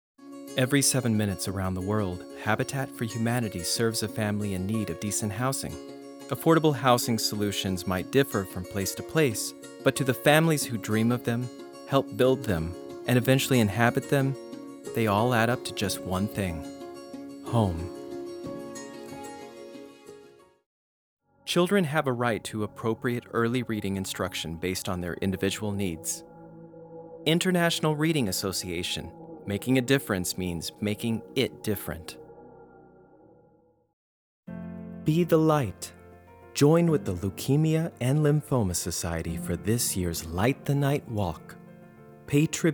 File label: VO PSA; Demo Reel